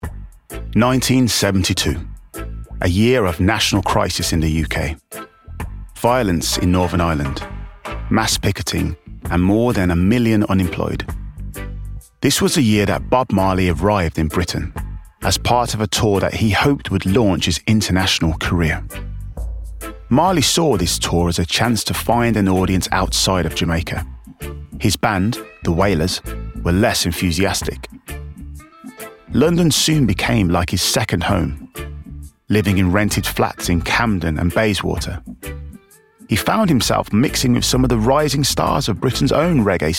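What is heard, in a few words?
documentary; 20-30s. US. Young, friendly and warm. Speaks fluent Arabic. Home Studio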